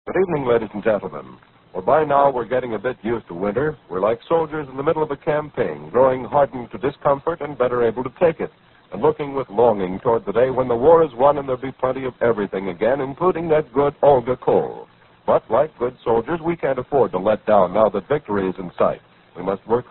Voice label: vintage commercials coal; olga